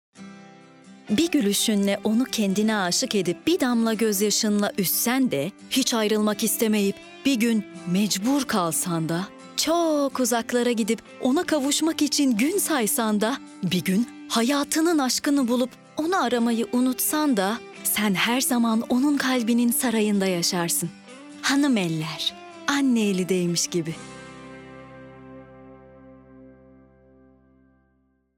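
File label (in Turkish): Sıcak Sakin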